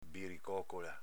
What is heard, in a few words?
"biricocola", dialetto veneto